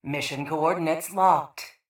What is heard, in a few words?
PA System Coordinates Locked